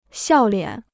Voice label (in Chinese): "笑脸", Chinese